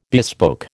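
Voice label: "bespoke", American English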